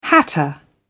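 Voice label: "hatter", English, uk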